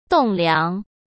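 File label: "栋梁", Mandarin Chinese; chinese flashcards; hsk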